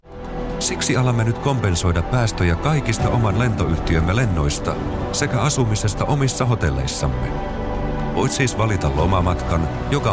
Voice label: finnish commercial

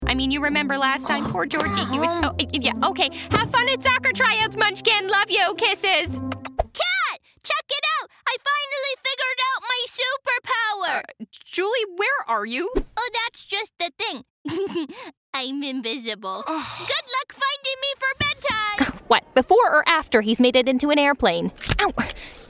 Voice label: english canadian animation

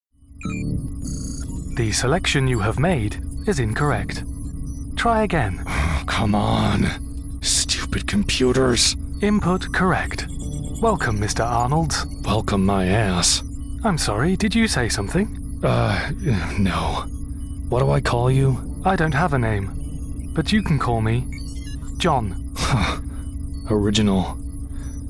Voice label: english british video games

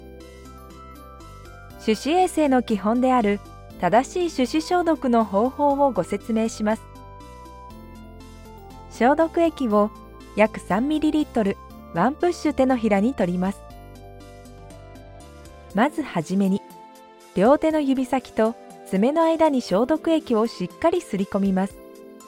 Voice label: japanese e learning

